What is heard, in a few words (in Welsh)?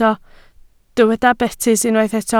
"betys", Welsh